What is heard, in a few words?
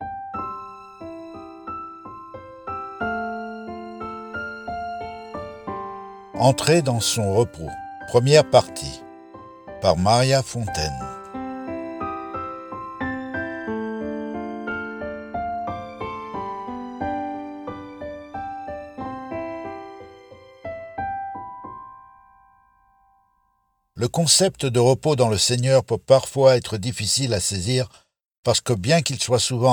meditation